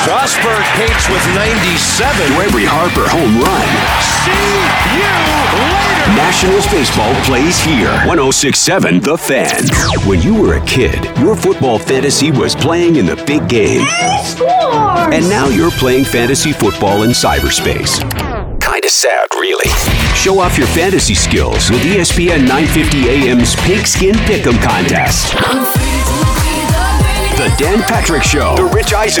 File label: imaging sports voice over